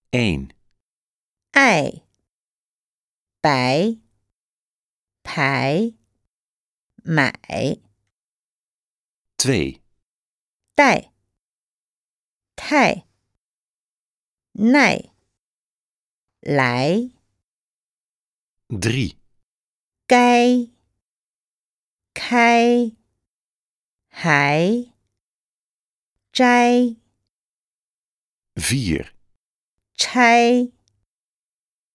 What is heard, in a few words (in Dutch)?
uitspraakoefening